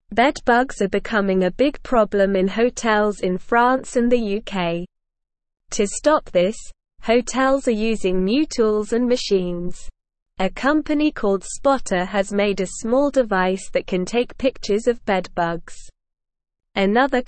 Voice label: English Newsroom Beginner SLOW Reading; Hotels use new tools to stop bedbugs